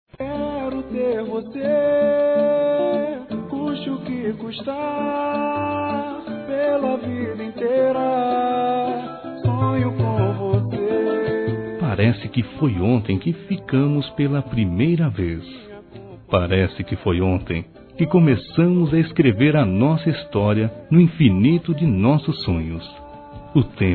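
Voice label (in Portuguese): romantico Masculino, Telemensagens; Dia Dos namorados; Telemensagens 2017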